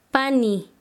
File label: Chanka, quechua; hermana de VaM